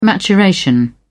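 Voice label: "maturation", British English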